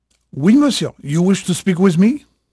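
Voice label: French male, voice; Pirates Of The Caribbean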